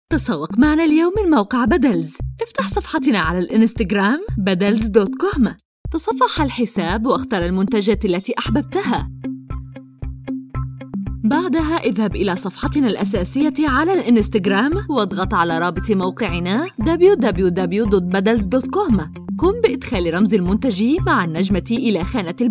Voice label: Arabic Fosha Advertising; For Badals Company